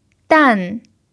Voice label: "dan4", pinyin